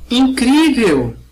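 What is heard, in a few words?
awesome, Finnish; gcompris-data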